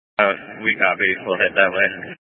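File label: Incident Tactical, scanner audio recording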